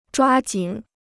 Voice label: "抓紧", Chinese